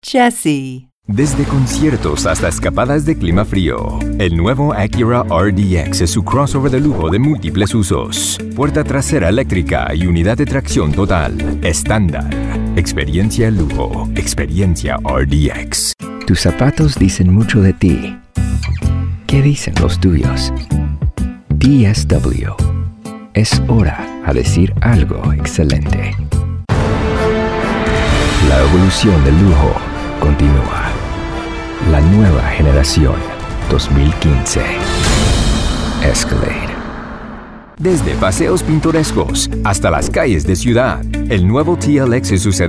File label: Commerical